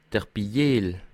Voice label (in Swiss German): "biejel", alsace